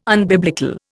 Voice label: "unbiblical", Indian

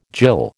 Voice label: "jill", American

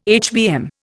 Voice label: "HBM", Indian

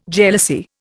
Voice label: "jealousy", Indian

